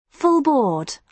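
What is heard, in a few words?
"full board", British English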